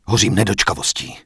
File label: Night Elf Male Heal Me; wowpreklad; czwow; NightElfVocalMale